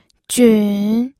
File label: "jun3", pinyin